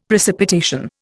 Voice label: "precipitation", Indian; Dictionary